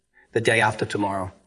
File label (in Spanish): Voice Acting es M